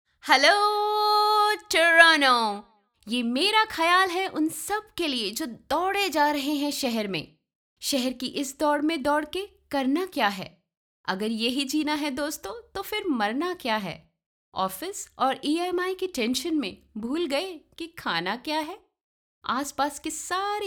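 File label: hindi radio imaging, Weiblich; India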